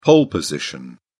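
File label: "pole position", British English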